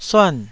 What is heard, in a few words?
"suan4", taiwanese Syllables